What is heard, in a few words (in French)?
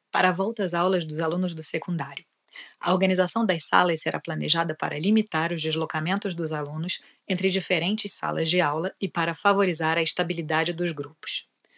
PORTUGAIS